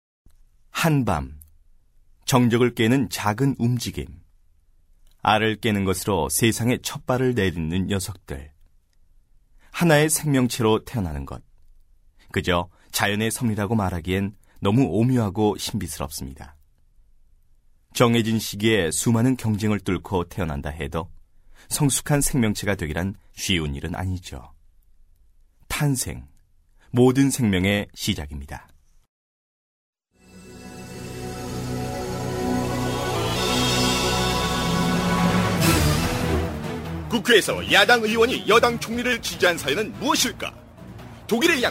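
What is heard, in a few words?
Korean, male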